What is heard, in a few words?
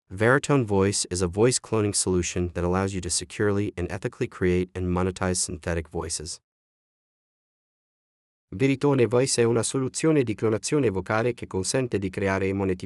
audio compiled English Italian French